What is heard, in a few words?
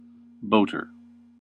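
"boater", English, American accent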